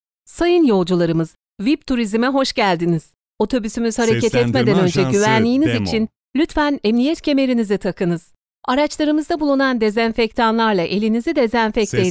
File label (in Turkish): otobus ici anons seslendirme; wib turizm